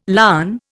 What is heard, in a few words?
"larn", Indian